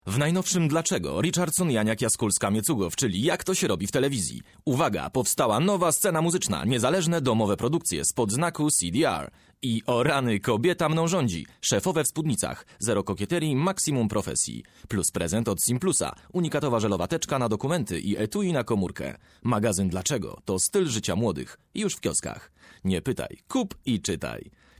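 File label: polish male voice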